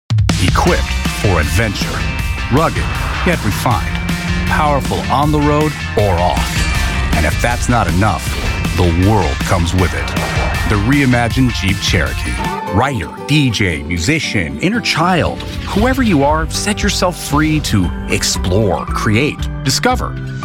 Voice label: Commercials

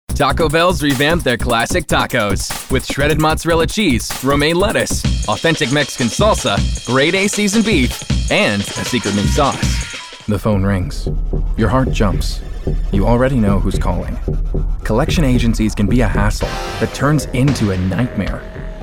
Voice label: Commercial

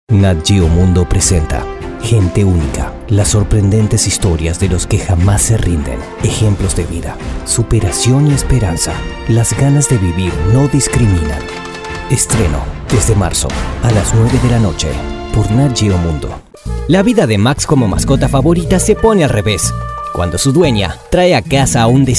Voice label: spanish voice over

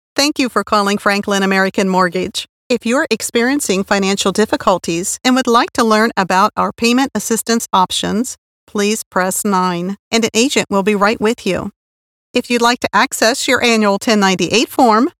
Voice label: IVR

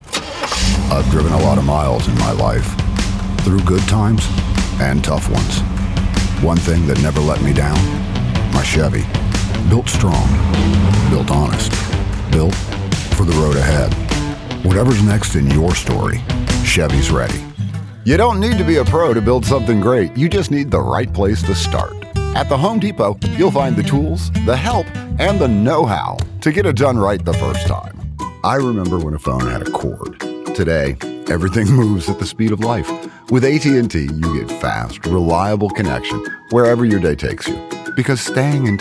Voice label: Baritone Commercial; voice-talent

